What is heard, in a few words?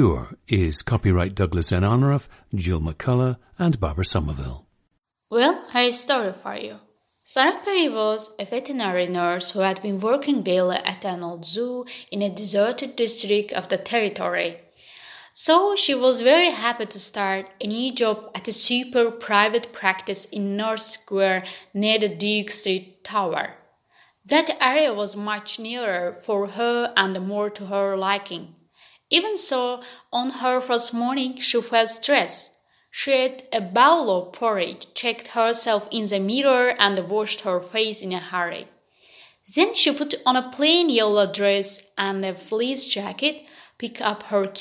azerbaijan